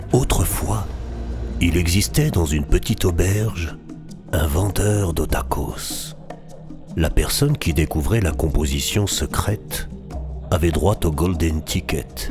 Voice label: narration music; Documentaire / Narration